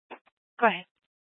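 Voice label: Incident Tac 1, Scanner audio recording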